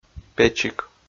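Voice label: "печик", Komi, male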